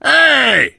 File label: initial meeting, survivor voice biker